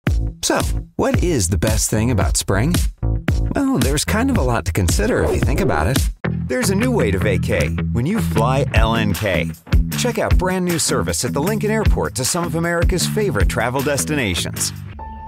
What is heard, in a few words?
voiceover commercial men; Chicago